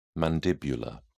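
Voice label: "mandibular", British English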